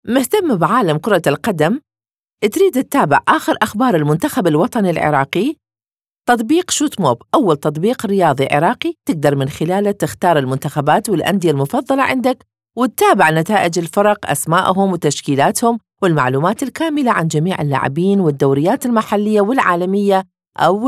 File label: iraqi; iraqi voiceover